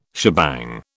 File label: "shebang", British